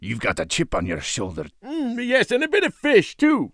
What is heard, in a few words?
Mortar team pissed